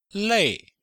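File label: "lei4", chinese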